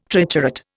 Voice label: "triturate", Indian

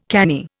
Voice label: "canny", Indian

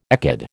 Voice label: "ecad", American

